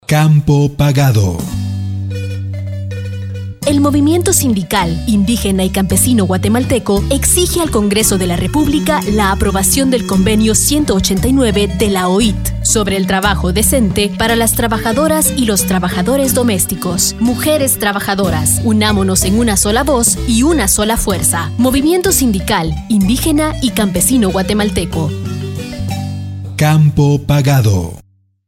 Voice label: spot radio